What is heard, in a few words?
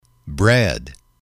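"bread", male, English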